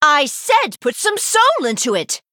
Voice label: Female High Elf Emote Behind; Bacon Shop Bob